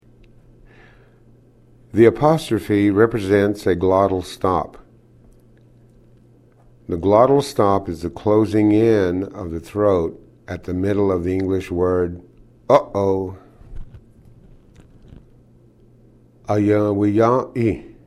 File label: glottal consonant, cherokee language